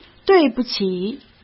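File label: "对不起" Mandarin Chinese; chinese-flashcards; hsk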